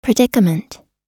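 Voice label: "predicament", American English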